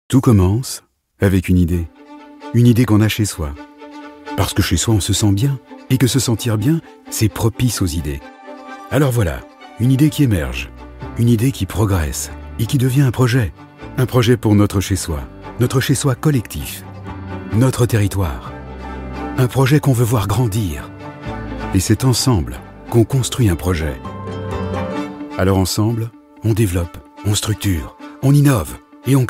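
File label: corporate voice-over warm steady commited; fabrique chartres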